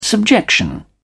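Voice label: "subjection", British English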